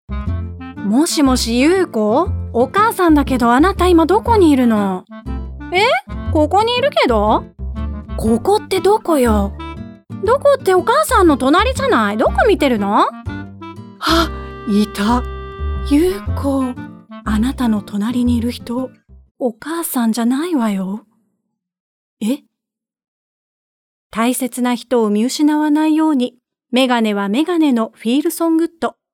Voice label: Narration